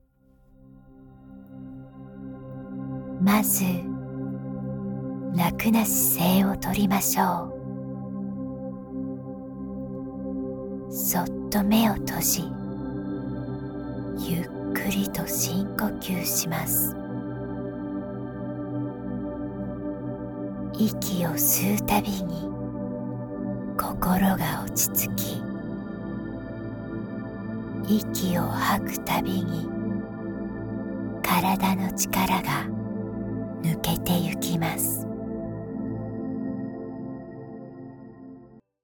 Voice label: Meditation Japanese